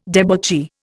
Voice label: "debauchee", Indian